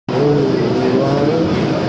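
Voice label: "yu2wang3", Mandarin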